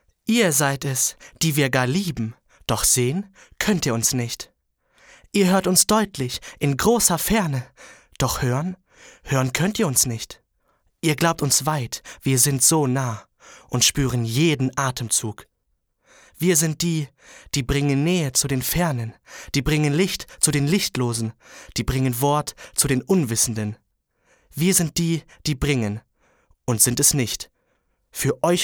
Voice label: Poetisch, jungen

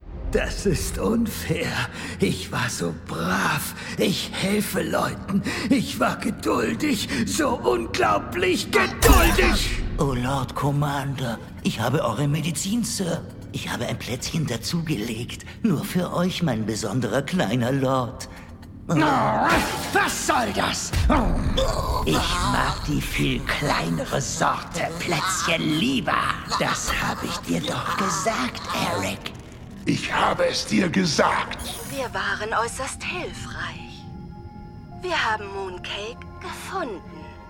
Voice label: Synchron, maenner; Final Space

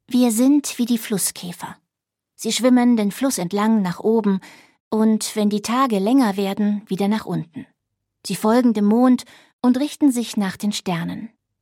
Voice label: Hörbuch, frauen; sprecher; Flusskind

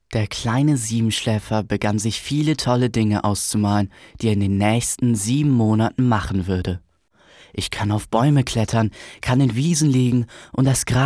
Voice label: jungen; Siebenschläfer

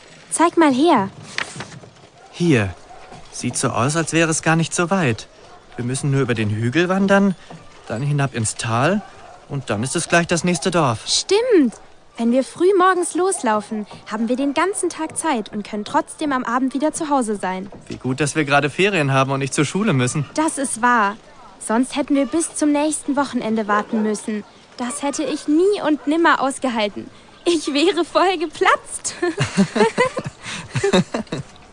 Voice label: Hörspiel; Enid Blyton; Ab in den Zauberwald

